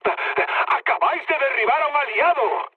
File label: player killed ally, mood low, speech; War Thunder